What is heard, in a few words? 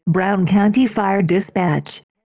Brown County Fire Dispatch, Female Voice